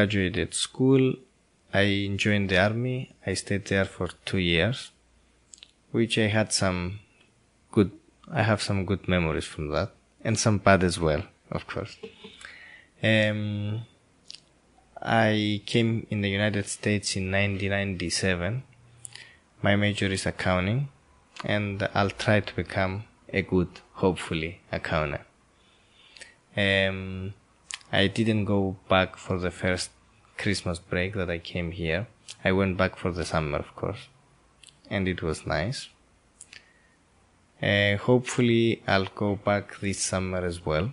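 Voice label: cyprus, International Dialects of English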